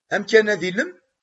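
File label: "Teslamt d acu i d-yenna Tom?", Kabyle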